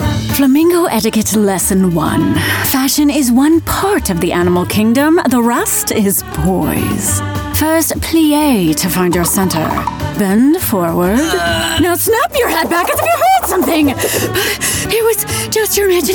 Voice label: Animation

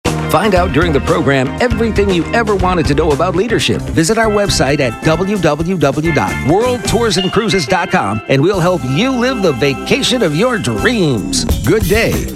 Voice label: Imaging

